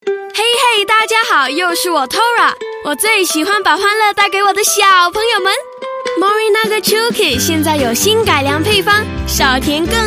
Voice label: mand